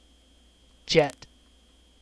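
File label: "jet", English syllable; kdeedu; klettres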